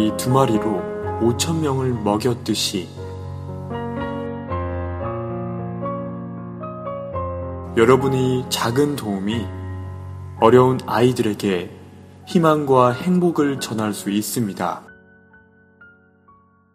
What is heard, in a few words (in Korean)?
NGO 단체 목소리 기부 내레이션